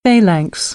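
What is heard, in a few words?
"phalanx", American English; AmericanBritish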